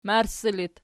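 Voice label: "marssi" locative, Archi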